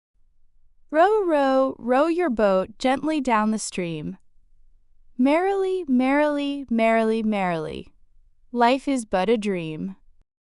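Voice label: female US, classic rhymes; Row Row Row Your Boat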